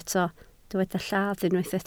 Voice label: "lladd", Welsh